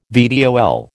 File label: "VTOL", American